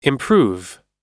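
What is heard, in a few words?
"improve", US English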